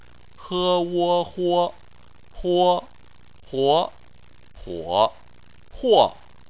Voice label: "huo", chinese